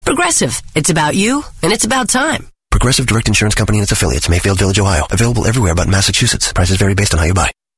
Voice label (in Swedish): reklam radio